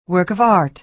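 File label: "work of art", American English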